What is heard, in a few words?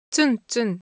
Japanese Shape, Ideo Phones